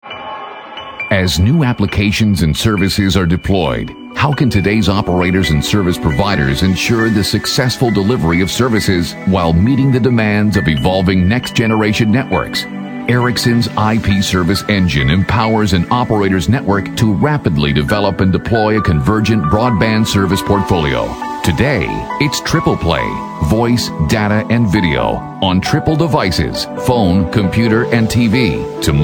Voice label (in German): Produktvideos Profi Publikum; NATIVE PROFISPRECHER